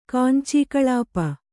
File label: "ಕಾಂಚೀಕಳಾಪ", kannada